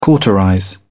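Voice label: "cauterize", British English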